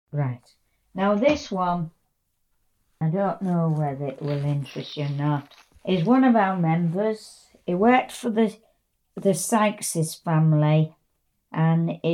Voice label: England Yorkshire Female 70s monologue

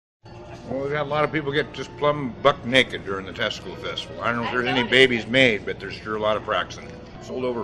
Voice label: Montana White Male 40s

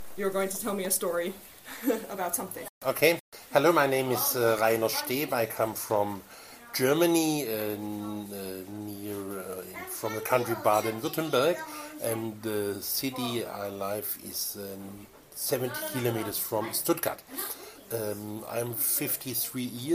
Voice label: South Western Germany 55 Yo white Man

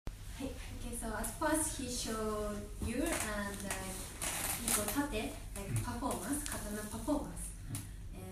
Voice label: Semi Demonstration, Japan; asia

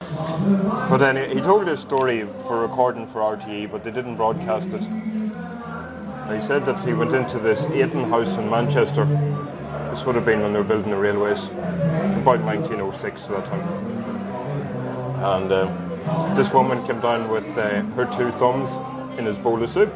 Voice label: South Irish Male 30s 40s

